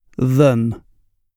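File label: "than", British English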